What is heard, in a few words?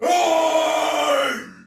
Battle Cry Soldier Male; Dialog; Barks; Sinecure